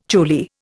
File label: "choli", Indian